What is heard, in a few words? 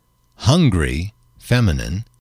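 "hungry", English, male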